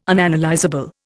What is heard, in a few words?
"Unanalysable", Indian